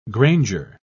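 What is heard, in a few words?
"granger", English, British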